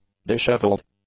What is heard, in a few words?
"dishevelled", English; adjectives